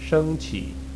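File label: "shengqi", Chinese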